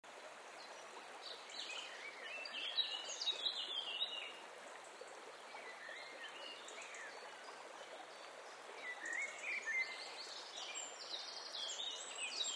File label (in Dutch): geleide ontspanning